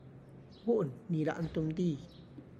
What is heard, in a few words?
bulls that plow straight, Tlacochahuaya Zapotec